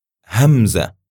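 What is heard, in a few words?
"hamza", Arabic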